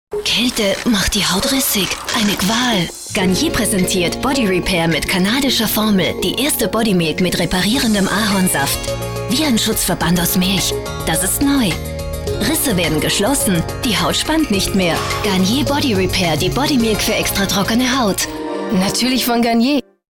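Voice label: german austrian female commercial; voice demos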